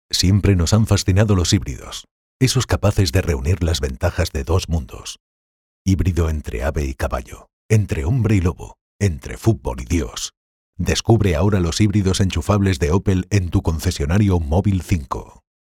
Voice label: spanish automotive